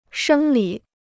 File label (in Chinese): "生理", Chinese